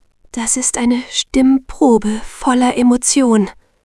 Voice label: Ängstlich, Stimmen; moods

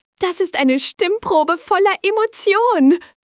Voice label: Hysterisch, Stimmen; moods

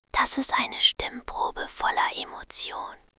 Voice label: Schüchtern, stimmen; moods

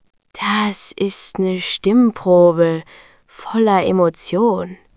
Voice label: Verliebt, Stimmen; moods

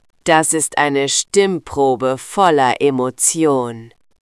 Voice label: Ekel, Stimmen; moods

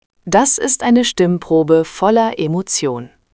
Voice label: Neutral, stimmen; moods

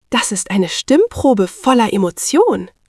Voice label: Überrascht, stimmen; moods

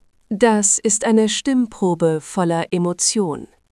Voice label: Poetisch, stimmen; moods

